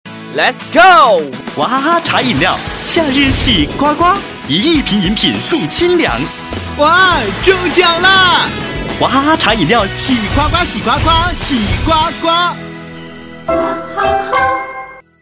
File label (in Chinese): 男 年轻时尚; 娃哈哈茶饮料-喜刮刮